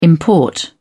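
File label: "import", British English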